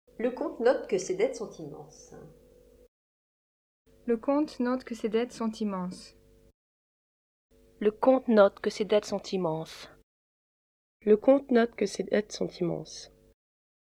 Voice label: the 8 utterances of the equivalent French sentence